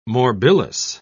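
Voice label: "morbillous", British English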